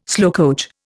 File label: "slowcoach", Indian